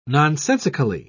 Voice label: "nonsensically", en uk